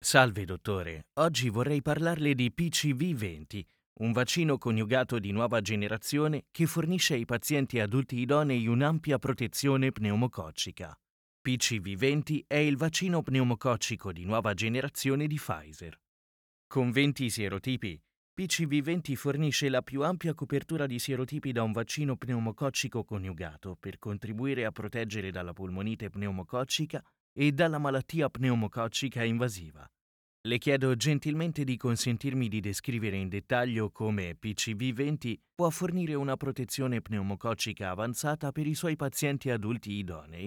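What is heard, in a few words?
Italian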